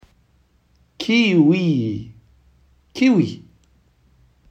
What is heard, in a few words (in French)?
kiwi en arabe